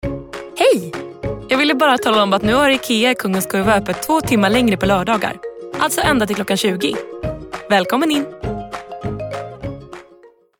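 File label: Swedish, female, reklam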